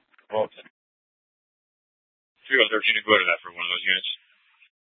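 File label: police dispatch, Scottsdale PD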